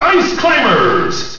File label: English Announcer; Ice Climbers; SSBM